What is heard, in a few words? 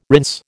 "rinse", American